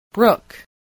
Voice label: "brook", spelling